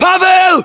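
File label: "pavel", battlechatter, Russian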